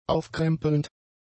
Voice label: "aufkrempelnd", German; adjectives